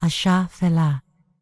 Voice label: Night Elf Female Priestess NPC Farewell